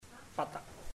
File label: friend, chamacoco; Puerto Diana